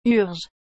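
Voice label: "urgent", French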